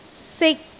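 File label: "sik3", Cantonese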